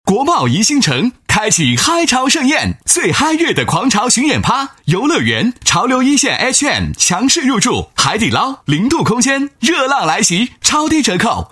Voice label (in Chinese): 男 广告 海南音乐, 磁性; 国贸宜欣城